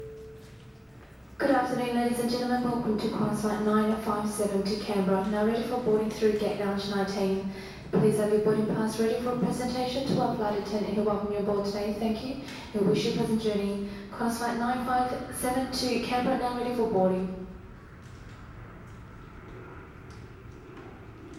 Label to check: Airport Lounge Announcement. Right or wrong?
Right